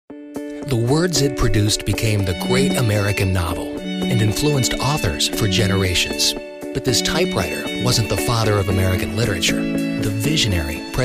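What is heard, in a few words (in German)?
Radio Werbespots Jugendfrei, English US; NATIVE PROFISPRECHER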